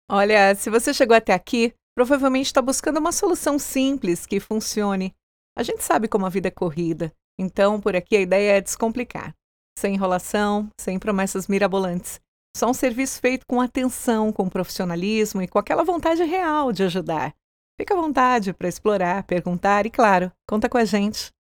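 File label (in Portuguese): Brazilian Portuguese, Conversational; GoLocalise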